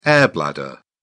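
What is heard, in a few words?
"air-bladder", British English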